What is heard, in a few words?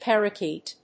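"parakeet", English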